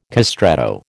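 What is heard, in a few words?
"castrato", American English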